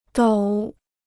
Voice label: "抖", Chinese

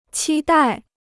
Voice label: "期待", Chinese

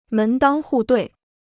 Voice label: "门当户对", Chinese

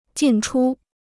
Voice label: "进出", Chinese

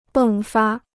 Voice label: "迸发", Chinese

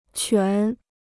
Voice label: "全", Chinese

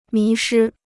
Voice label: "迷失", Chinese

